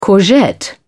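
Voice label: "courgette", British English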